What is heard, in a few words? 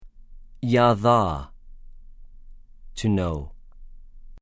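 "יָדַע", Hebrew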